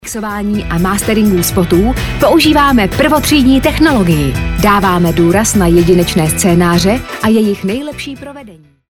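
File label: Czech, female, e-learning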